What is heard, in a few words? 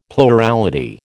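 "plurality", American English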